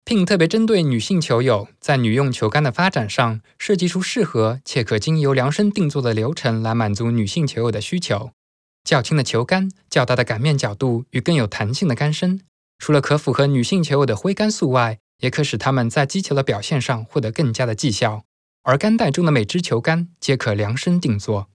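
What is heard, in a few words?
Chinese Narration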